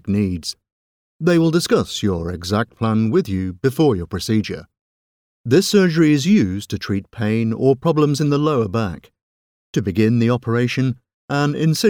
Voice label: voiceover narrative medical patient info posterior spinal fusion